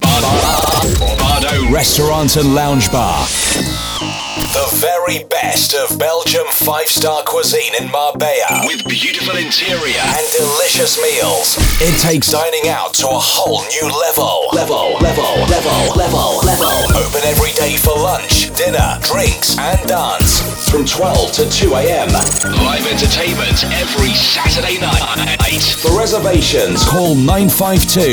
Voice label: english uk male commercial